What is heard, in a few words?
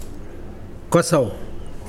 cloud, Mokilese